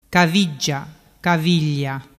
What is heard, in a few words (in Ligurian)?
"caviggia caviglia", dialettu